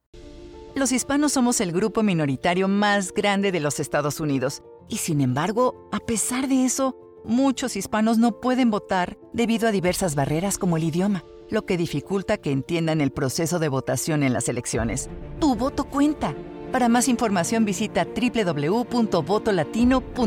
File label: Political Bilingual